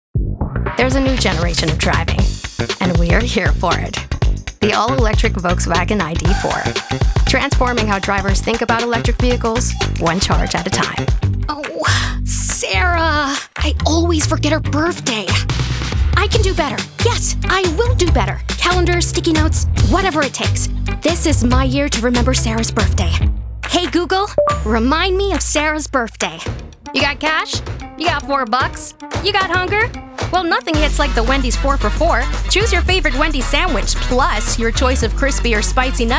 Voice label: Commercial